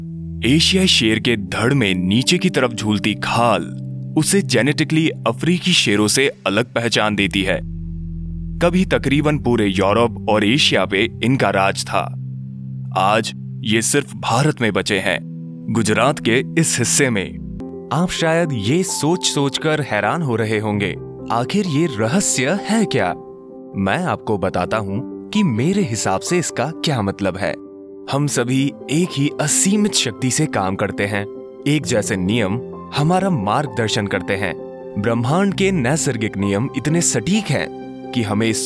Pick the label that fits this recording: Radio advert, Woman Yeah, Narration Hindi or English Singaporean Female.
Narration Hindi